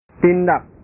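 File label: "tindak", Indonesian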